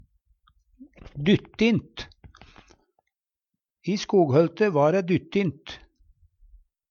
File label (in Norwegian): "dyttint", Numedalsmål